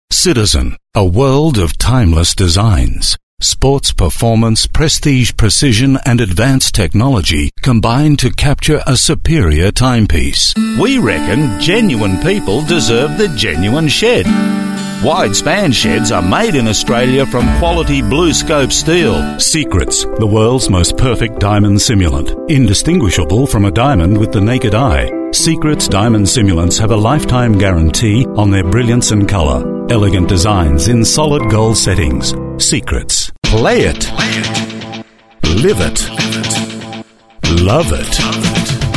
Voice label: male talent retail